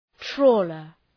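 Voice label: "trawler", English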